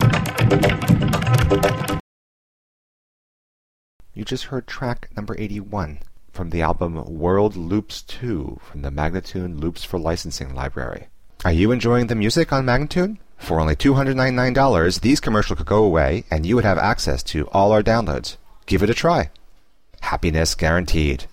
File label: 120 G spoken, World Loops; solace; MagnaLoops